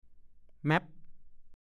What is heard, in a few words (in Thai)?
"แม็บ", ภาษาโคราช